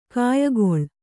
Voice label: "ಕಾಯಗೊಳ್", Kannada

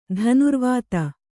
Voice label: "ಧನುರ್ವೇದಿ", Kannada

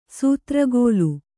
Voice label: "ಸೂತ್ರಗೋಲು", Kannada

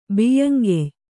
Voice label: "ಬಿಯ್ಯಂಗೆಯ್", Kannada

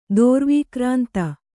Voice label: "ದೋರ್ವೀಕ್ರಾಂತ", Kannada

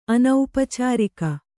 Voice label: "ಅನೌಪಚಾರಿಕ", kannada

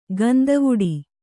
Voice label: "ಗಂದವುಡಿ", Kannada

